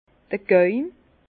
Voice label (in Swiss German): "gaume", Bas Rhin; vin; palais